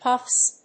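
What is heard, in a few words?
"puffs", English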